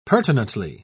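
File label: "pertinently", British English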